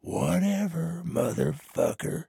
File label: trader male dislike quest declined